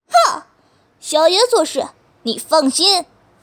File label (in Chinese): 正太